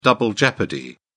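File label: "double jeopardy", British English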